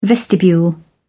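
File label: "vestibule", British English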